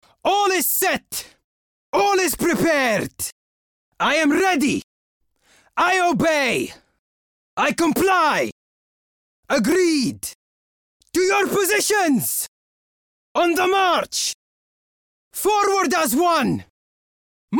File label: English in Egyptian Accent; Gaming; Total War PHARAOH